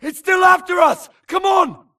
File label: grunt flee Player Titan Group Call; imc; Titanfall 2